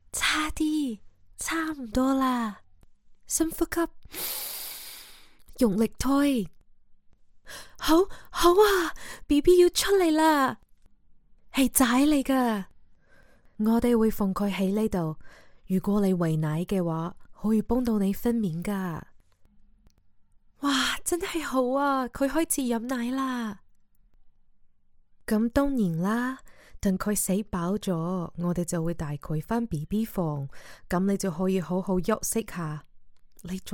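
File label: ZH Can General, Cantonese Chinese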